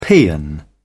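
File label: "paean", British English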